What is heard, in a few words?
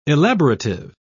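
"elaborative", British English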